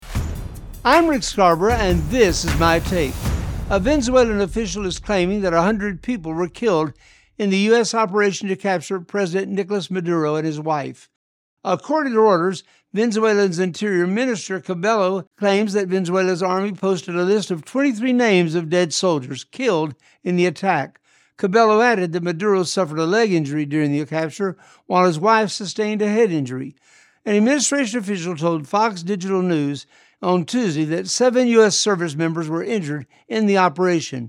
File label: radio spot; Venezuela